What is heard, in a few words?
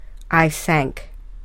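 "I sank", English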